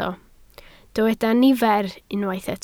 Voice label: "nifer", Welsh

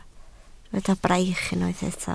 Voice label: "braich", Welsh

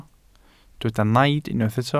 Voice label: "naid" Welsh

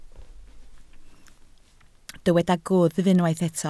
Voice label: "gwddf", Welsh

